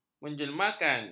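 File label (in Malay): "menjelmakan", Malay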